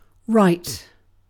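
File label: "right", British English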